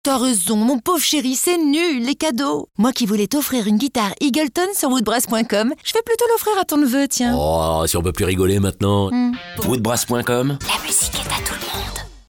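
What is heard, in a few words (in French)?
comedie clin d'oeil, voix off féminine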